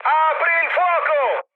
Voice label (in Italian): attack position, mood high, speech it